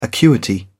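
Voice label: "acuity", English, British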